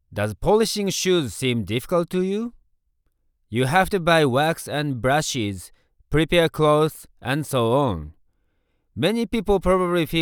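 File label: Japanese Male English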